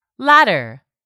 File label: "latter", English; 3000 words